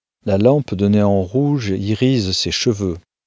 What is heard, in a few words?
Sample sentence Male